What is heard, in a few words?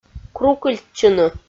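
"крукыльтчыны", Komi, female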